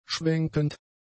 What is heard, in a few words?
"schwenkend", German; adjectives